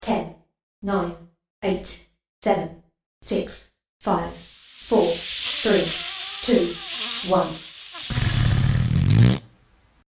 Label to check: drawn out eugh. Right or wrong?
Wrong